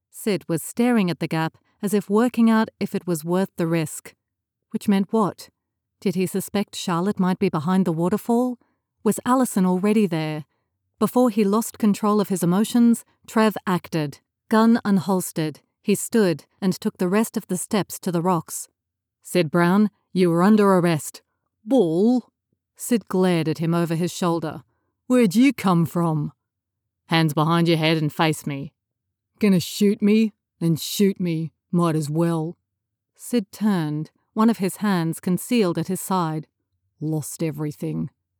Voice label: Fiction Cozy Mystery Multiple Male Dialogue